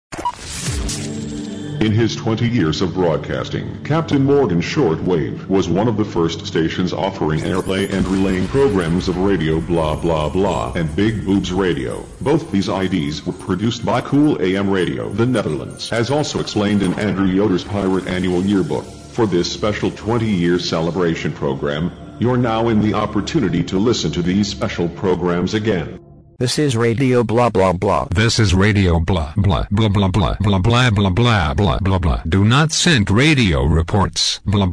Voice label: announcement